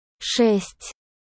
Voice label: Six russian

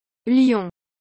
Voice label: Lion french

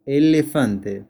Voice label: Elephant spanish

